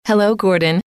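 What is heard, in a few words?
"hello", female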